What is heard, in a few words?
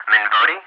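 "MinVody", English, ATC callsign; DCS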